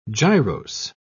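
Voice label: "gyrose", British English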